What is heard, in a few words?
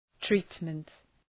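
"treatment", English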